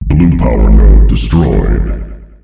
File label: "Blue powernode destroyed", announcer male; Unreal Tournament; ut2004